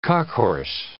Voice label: "cockhorse", English